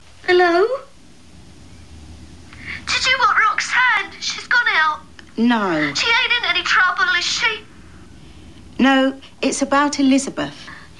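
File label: British London working class female, dialect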